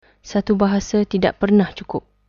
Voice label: one language, malay